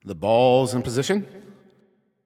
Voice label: Ball Placed in Start Ring, Announcer